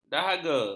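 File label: "dahaga", Malay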